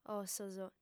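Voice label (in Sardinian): "ossos", female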